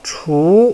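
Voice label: "chu2", Mandarin Chinese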